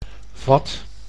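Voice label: "vat", Dutch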